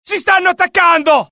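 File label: alert, Italian; mohaa; dialogue; sighted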